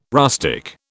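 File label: "rustic", British English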